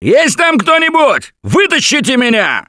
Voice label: call for rescue, russian; Gambler